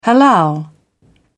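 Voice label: "halal", British English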